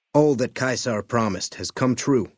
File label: male adult greeting; Fnv